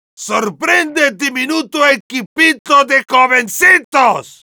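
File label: Heavy taunts, spanish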